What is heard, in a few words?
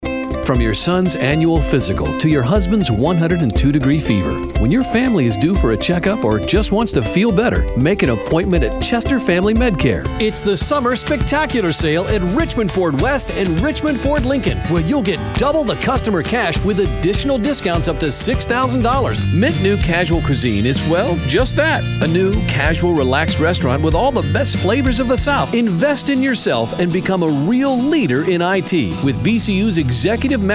Announcer